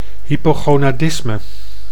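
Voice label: "hypogondanisme", Dutch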